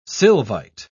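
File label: "sylvite", British English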